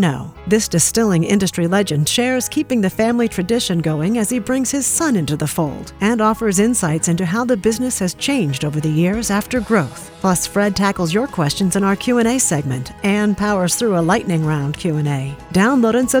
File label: podcast; BINNYS